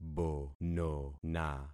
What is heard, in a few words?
"bonona", concat syllables; Affixing experiment